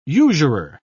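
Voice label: "usurer", British English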